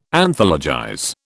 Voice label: "Anthologize", British